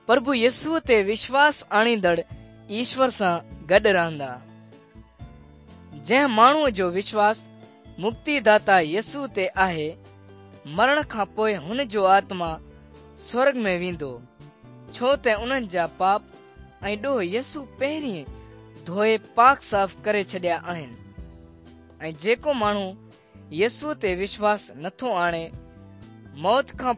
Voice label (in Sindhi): good news sindhi dukslinu, drama